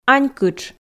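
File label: "анькытш", Komi, female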